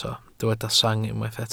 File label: "sang", Welsh